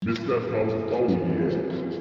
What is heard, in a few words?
yeah echo low pitch